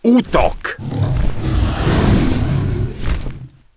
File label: Human Male Open Fire; wowpreklad; czwow; HumanVocalMale